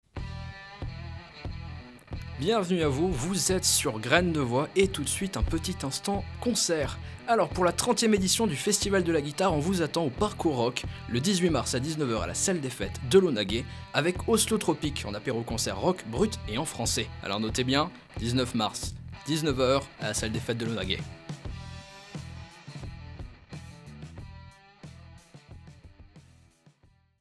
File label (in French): Bande annonce; graine de voix